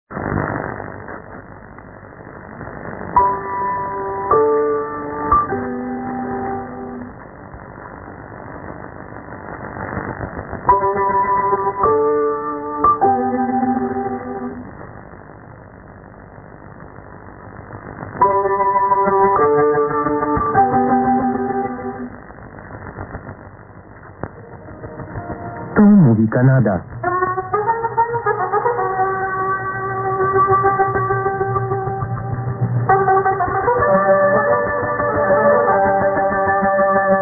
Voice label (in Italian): polacco; Radio Canada International